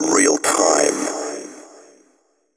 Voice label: techno male 140; Vocals